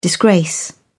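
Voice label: "disgrace", British English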